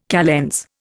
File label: "calends", Indian